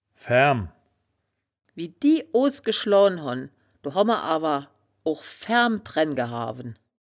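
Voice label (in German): "faerm", wittlicher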